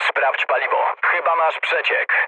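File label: tank damaged, mood low, Polish speech; War Thunder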